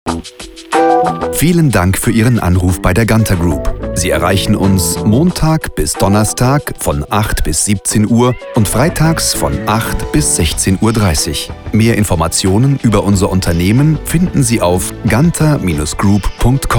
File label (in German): info anrufbeantworter